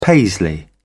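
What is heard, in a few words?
"paisley", British English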